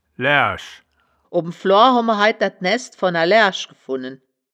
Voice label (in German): "laeaerisch", wittlich